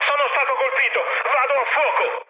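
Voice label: Italian on fire mood high, speech; War Thunder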